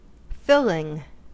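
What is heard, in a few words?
"filling", US English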